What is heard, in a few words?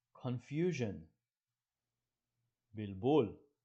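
"confusion" English, "בלבול" Hebrew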